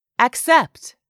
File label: "accept", English; 3000 words